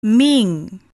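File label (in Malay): "ming", Malay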